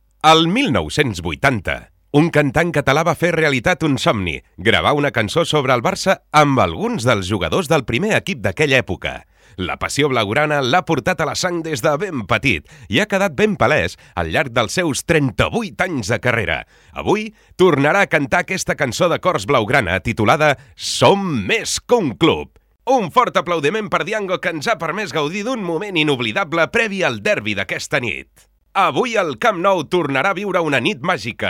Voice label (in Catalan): SPEAKER Futbol Catala; BARÇA